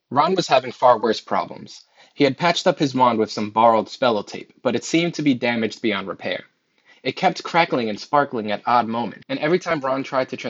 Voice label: Shure Aonic 215 voice sample